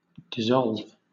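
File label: "dissolve", British English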